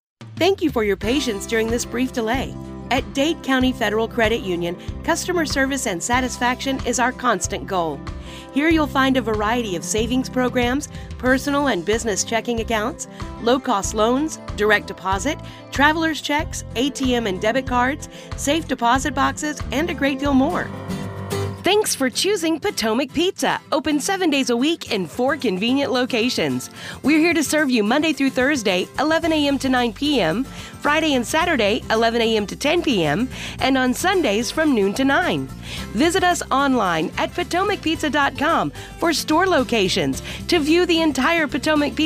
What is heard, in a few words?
On Hold Msg Voicemail; On Hold Messaging Demo